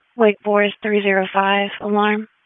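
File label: Wake Forest Police Dispatch, p25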